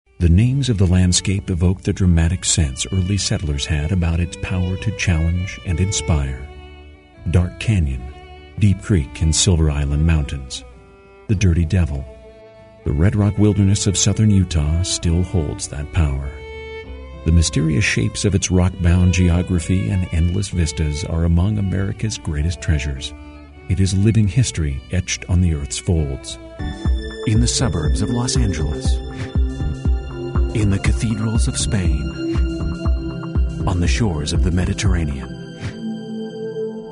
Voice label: narr